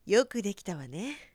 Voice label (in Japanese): 女教師